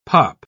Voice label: "pop", pronounce